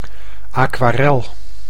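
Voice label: "aquarel", Dutch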